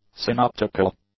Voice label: "synoptical", English; adjectives